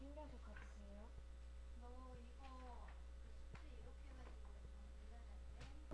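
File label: "rub", spoken word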